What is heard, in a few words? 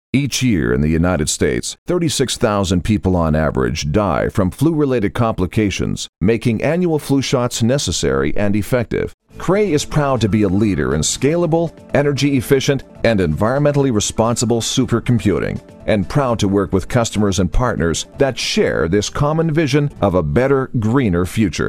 Educational